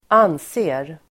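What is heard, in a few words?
"anser", Swedish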